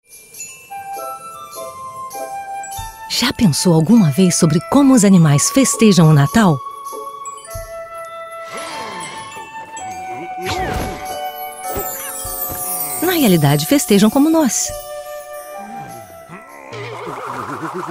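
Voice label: Brazilian Portuguese trailer, female; portugisisk-brasil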